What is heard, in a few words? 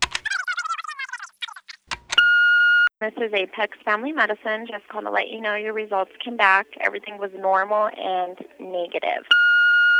Answering Machine